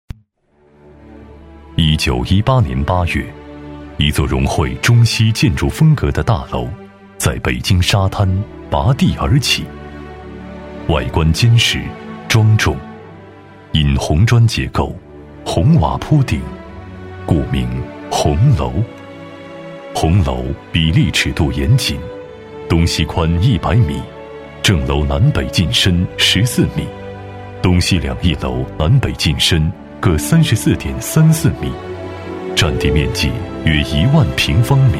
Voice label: 男 城市宣传 历史叙述; 男98-磁性品质 高端大气; 北大红楼

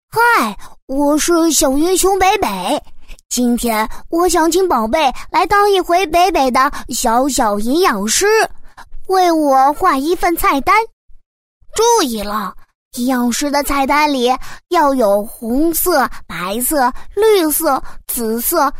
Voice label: 女 动画片 多种角色; 《小云熊》

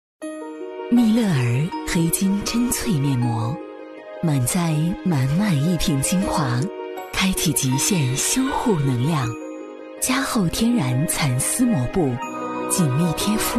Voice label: 女, 品牌广告, 面膜, 高端磁性

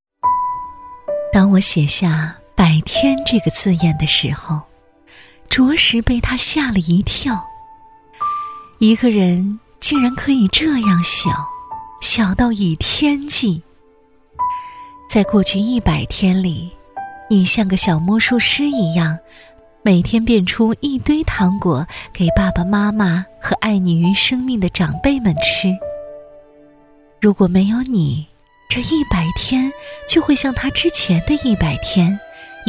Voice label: 女 内心独白 妈妈独白; 孩子蒂芙尼百天纪念

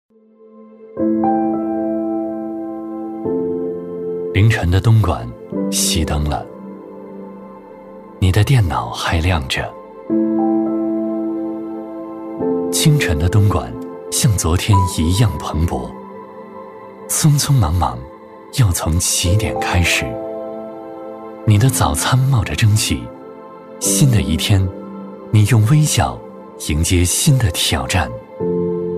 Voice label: 男-走心抗疫-磁性积极向上; 致敬 奋斗的你

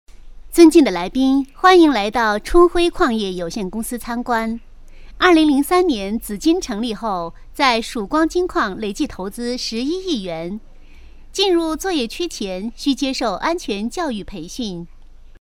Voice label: 女 广告配音 稳重端庄

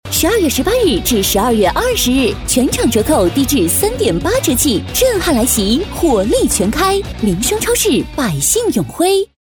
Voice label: 女, 促销广告, 超市, 活力欢快; 永辉超市

